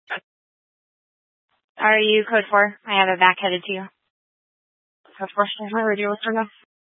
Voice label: Sheriff Dispatch, scanner; Mesa County